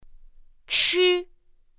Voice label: "chī", pinyin; pinyin2; pinyinji